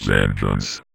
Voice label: Vocoder Words; Vengeance Samples